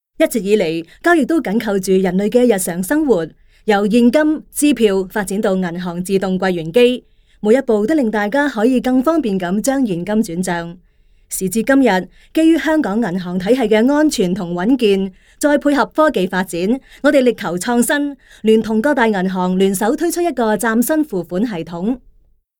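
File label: Cantonese, female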